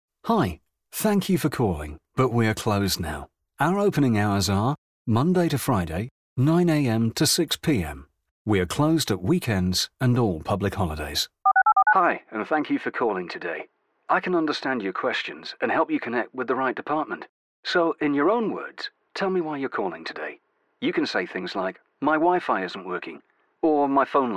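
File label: uk ivr, British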